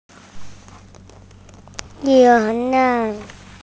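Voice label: "responsibility", Mandarin Chinese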